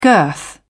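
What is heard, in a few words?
"girth", English, British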